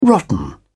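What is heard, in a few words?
"rotten", British English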